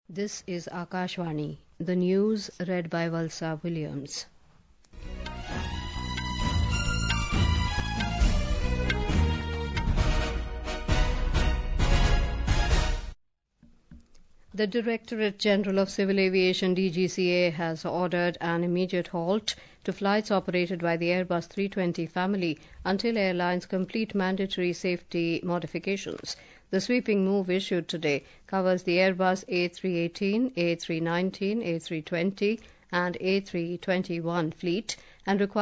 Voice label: English; Cricket: India thrashed England in Rajkot test